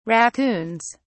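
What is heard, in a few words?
"raccoons", English, uk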